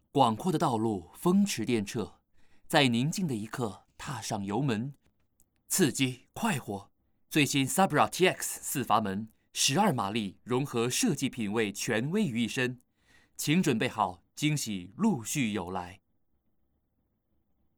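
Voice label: Mandarin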